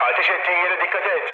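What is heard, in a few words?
Turkish player friendly fire, mood low, speech; War Thunder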